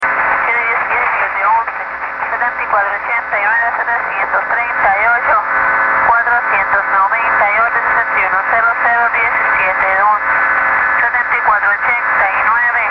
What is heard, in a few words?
Babbler